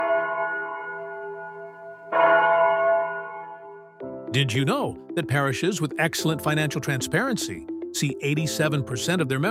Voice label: 30sec Commercial, Armenian Church; St. Sahag Mesrob